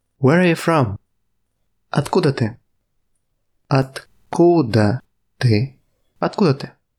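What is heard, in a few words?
Russian Where are you from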